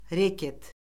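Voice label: "reket", Azerbaijani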